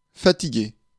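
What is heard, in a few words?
"fatigué", French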